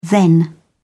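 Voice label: "then", British English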